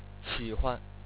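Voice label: "xi3huan", Chinese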